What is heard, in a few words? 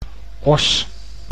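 "Oss", Dutch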